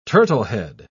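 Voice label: "turtlehead", British English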